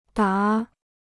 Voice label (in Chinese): "答", Chinese